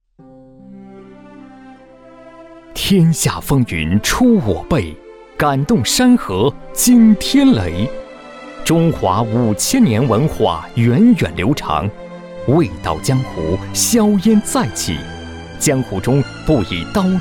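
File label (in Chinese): 男, 专题, 恢弘大气, 普通话; 味道江湖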